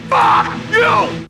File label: fuck you SHOUT; Voice; expletives